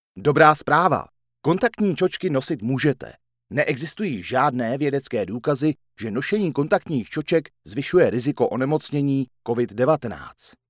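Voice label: Czech, male, IVR; voice over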